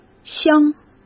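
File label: "箱", Mandarin Chinese; hsk; chinese-flashcards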